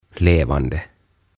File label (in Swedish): "Levande" "Elävä"